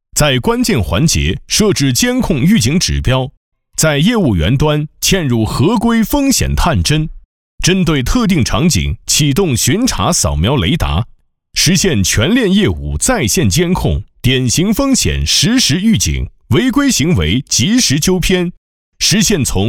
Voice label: 男 平稳 军队 汇报

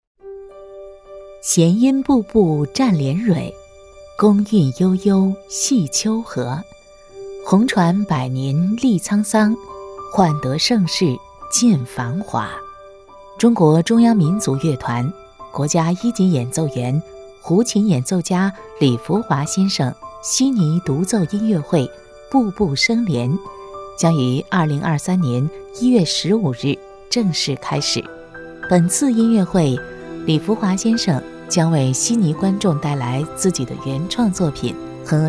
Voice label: 女 纪录片 古典

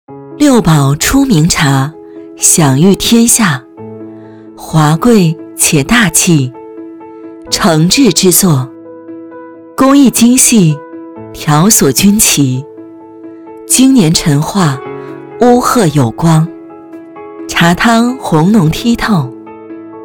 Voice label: 女 广告 茶

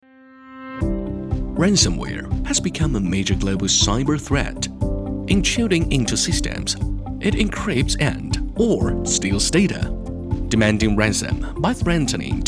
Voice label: 英男 英语 中年 产品介绍

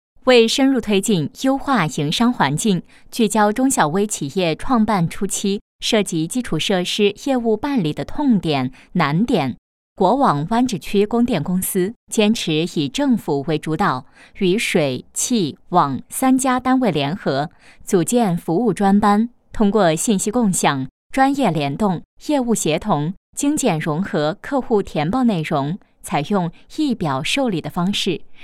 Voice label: 女 新闻; 国网湾沚区供电公司

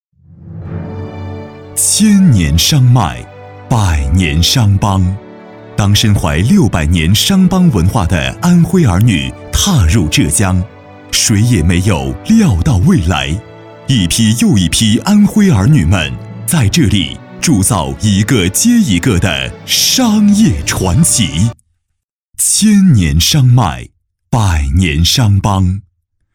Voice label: 男 企业宣传; 安徽商会

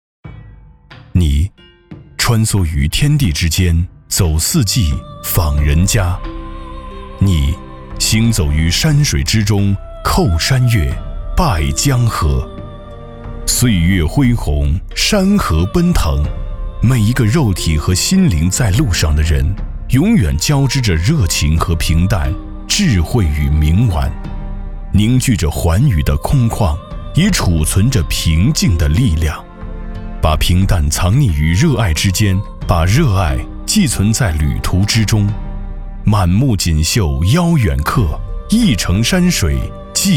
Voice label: 男 宣传片 大气神秘 磁性; 临夏旅游推广

